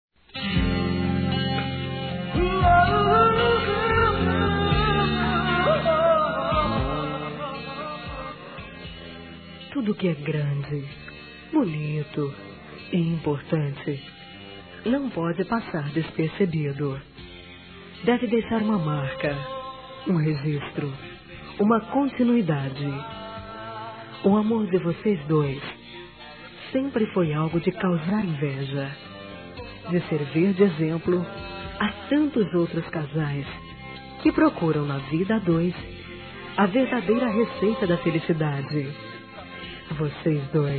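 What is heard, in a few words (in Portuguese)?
parabéns pelo bebê, feminino; Telemensagens; Parabenização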